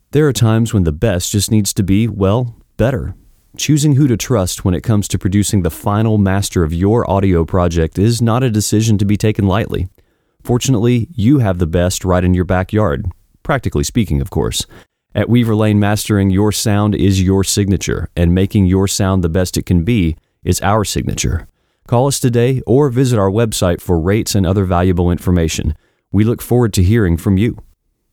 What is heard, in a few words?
Relaxed Conversational Voiceover Spot Dry